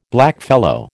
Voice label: "blackfellow", American